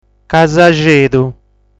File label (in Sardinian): "Casu Axedu", sardu